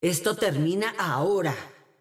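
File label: Latin American Spanish; 索杰恩（守望先锋2）; 终极技能; 主动/敌方释放语音; 拉美地区; 西班牙语; ¡Esto termina ahora!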